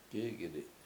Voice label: "k7Ik7idi7", Washo; trout